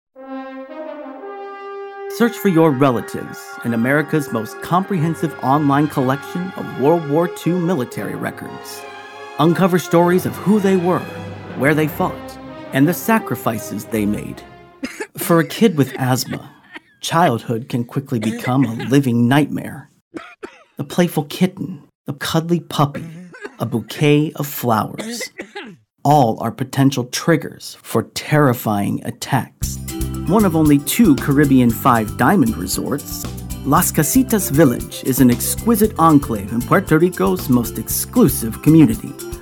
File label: Commercial